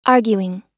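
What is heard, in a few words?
"arguing", US English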